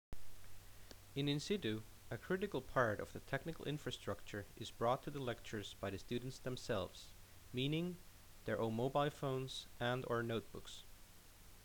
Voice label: Narration